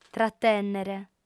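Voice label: "tratènnere", Sardinian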